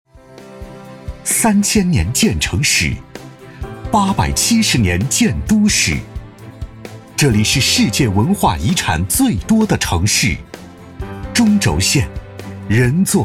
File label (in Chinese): 男 大音 城市 品牌形象片; 北京